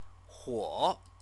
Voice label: "huo", Chinese; CTCFL; University of Oxford

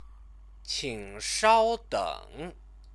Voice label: "qingshaodeng", Chinese

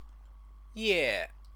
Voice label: "ye", Chinese

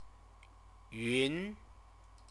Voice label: "yun", Chinese

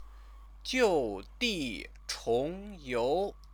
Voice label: "jiudichongyou", Chinese

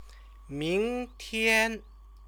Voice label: "mingtian", Chinese

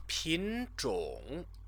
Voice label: "pinzhong", Chinese

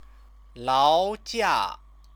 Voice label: "laojia", Chinese

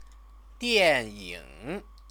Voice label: "dianying", Chinese; 电 影; film, movies; noun; Chinese lessons

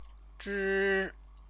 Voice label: "zhi", Chinese

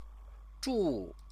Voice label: "zhu", Chinese

